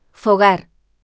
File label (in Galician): voz sintética; Xénero